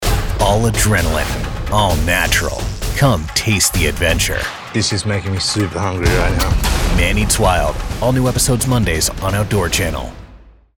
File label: Wild Compelling Welcoming; Man Eats